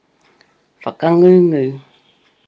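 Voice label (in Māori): "whakangungu", Māori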